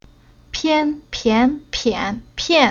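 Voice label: "Pian", Chinese syllable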